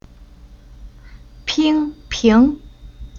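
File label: "Ping", Chinese syllable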